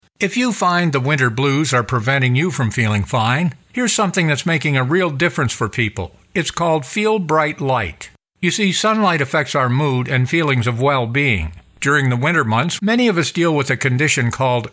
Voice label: radio spot